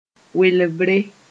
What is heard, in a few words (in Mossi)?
"welbre", moore